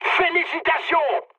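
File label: French player killed enemy mood high; War Thunder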